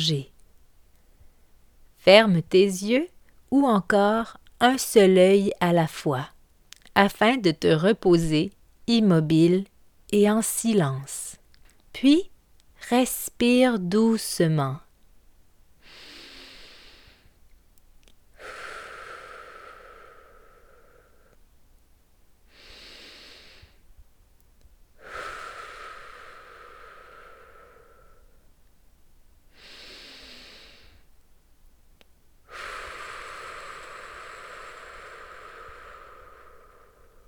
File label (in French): méditation sauterelle; collection famille enfant nature; cricri écoute ses amis